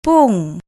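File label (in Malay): "pung", Malay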